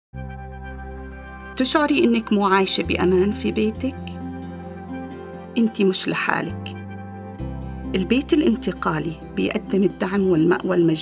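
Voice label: 30sec RADIO PSA Arabic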